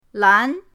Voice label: "lan2", pinyin

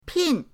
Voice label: "pin4", pinyin; pinyindct-open

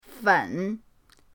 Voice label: "fen3", pinyin

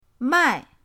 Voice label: "mai4", pinyin

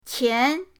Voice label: "qian2", pinyin

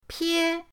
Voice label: "pie1", pinyin; pinyindct-open

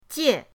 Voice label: "jie4", pinyin

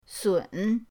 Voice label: "sun3", pinyin

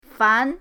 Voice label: "fan2", pinyin

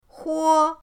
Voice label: "huo1", pinyin

